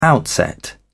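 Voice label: "outset", British English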